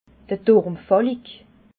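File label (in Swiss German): "turmfalik", Alsatian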